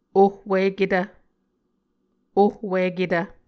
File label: "ohwiketv", Muscogee